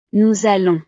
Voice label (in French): "nous allons", frances